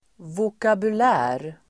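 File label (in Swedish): "vokabulär", Swedish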